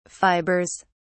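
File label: "fibers", English, British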